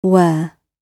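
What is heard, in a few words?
"wear" spoken, British English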